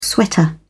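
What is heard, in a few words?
"sweater", British English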